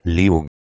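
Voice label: "leug", Proto-Indo-European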